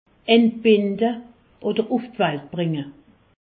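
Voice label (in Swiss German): "entbinde", Alsace, Haut Rhin; medecine; accoucher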